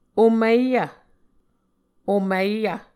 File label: "omiyv", Muscogee